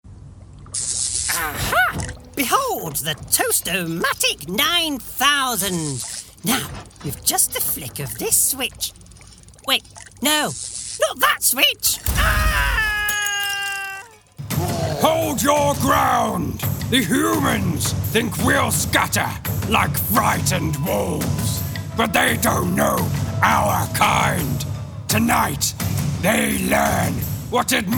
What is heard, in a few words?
Character